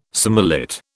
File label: "surmullet", British